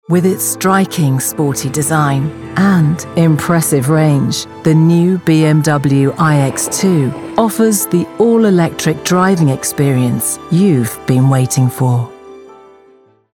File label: commercial; BMW